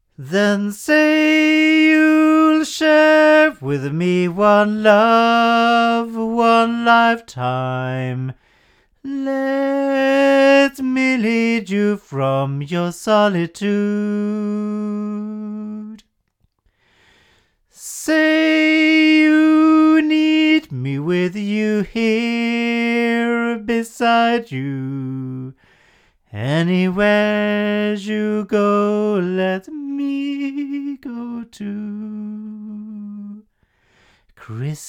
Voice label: Sontronics Sigma male

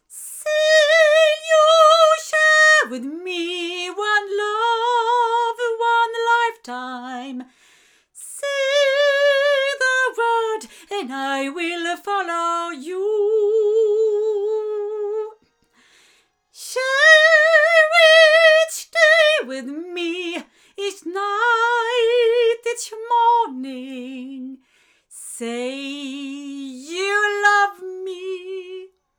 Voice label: BLUE Yeti Pro omni female

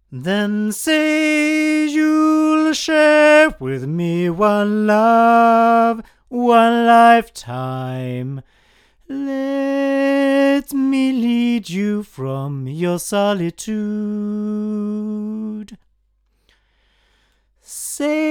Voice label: 8 male; Studio Projects LSD2

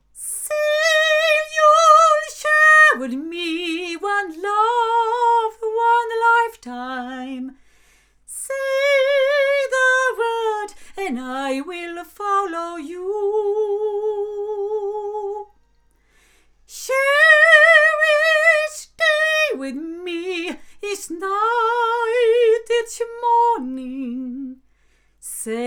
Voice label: omni female, microphones; Lauten Oceanus

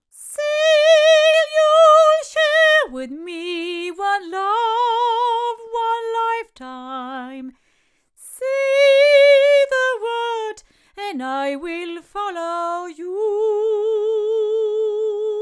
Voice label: Oktava MK012 hypercardioid female

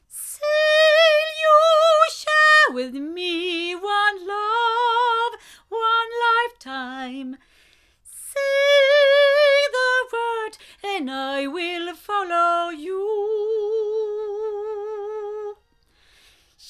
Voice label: Encore200 female; BLUE

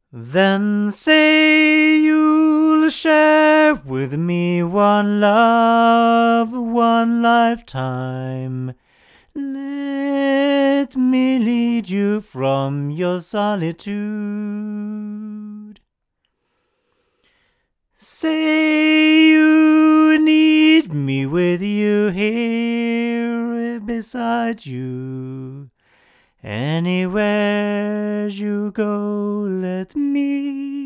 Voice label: MXL 990 male

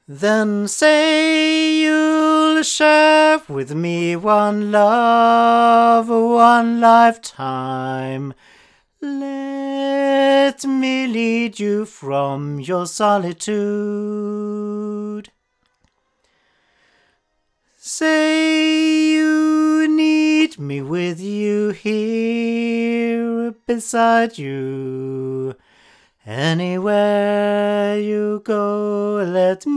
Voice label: Blue Yeti Pro cardioid male

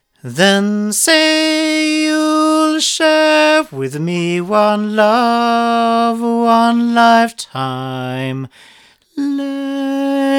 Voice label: Shure Beta58A FAKE male

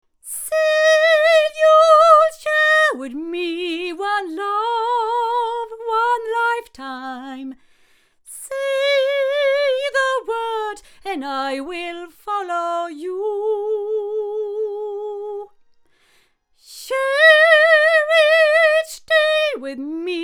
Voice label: BV-1 cardioid female; Avant; Avantone

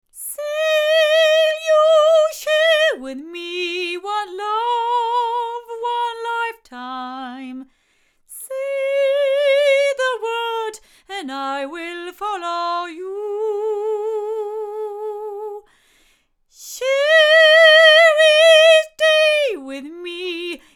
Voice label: A48 cardioid female; ADK

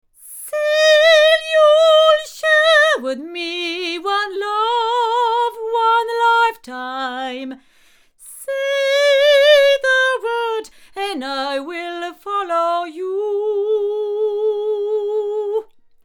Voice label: Avant CV-12 tube cardioid female

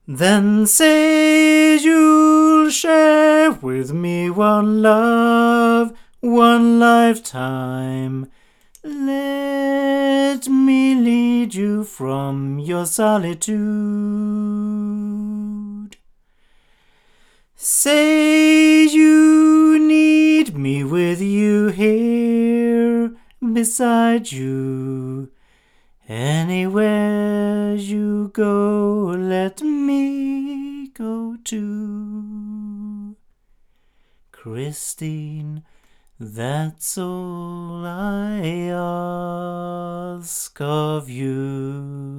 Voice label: A48 omni male; ADK

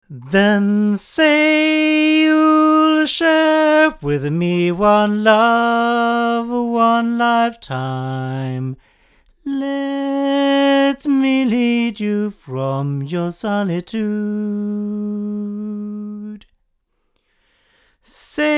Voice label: Golden Age FC3 8 male

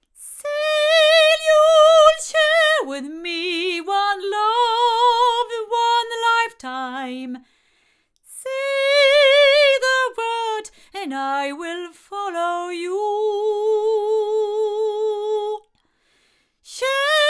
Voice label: Golden Age TC1 cardioid female

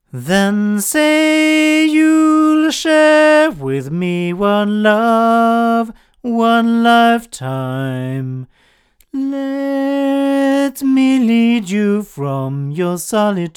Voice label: ADK A51S Model S male, microphones

